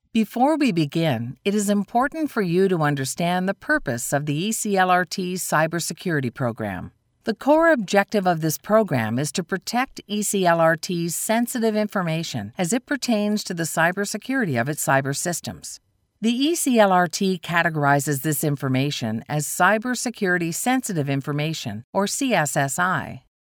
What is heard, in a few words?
eLearning sample serious, Female Voice Over